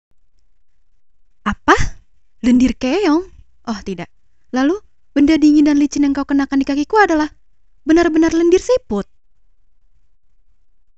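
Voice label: character Indonesian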